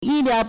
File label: "yi1liao2", Chinese